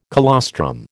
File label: "colostrum", American